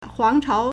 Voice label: "huángcháo", Chinese; 皇朝; the imperial court